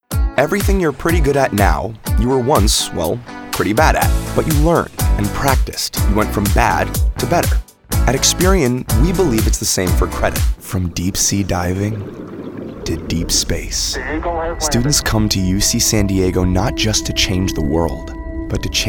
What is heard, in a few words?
Commercial